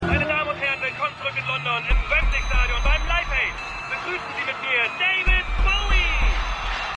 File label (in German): Ansager; Quantum Leap